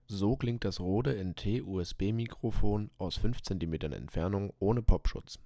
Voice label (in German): Rode 5cm ohne Popschutz